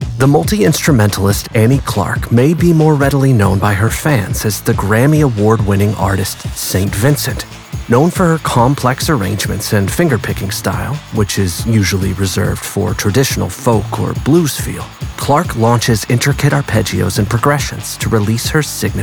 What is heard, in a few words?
Male Voice Over Narration